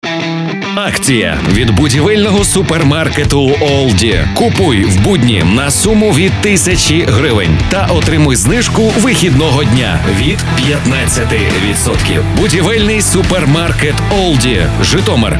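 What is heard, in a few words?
ukrainian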